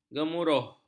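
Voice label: "gemuruh", Malay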